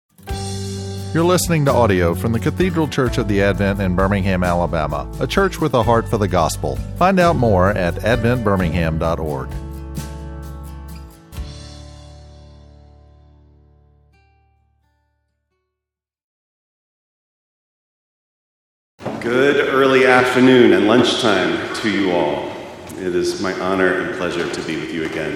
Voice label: Lent Preaching